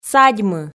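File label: "садьмы" Komi, female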